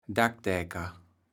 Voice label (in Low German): "Dackdäker", kaevels platt